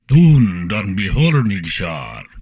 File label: humanoid portrait male; Stellaris